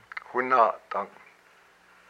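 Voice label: "my eyelash", Hupa, male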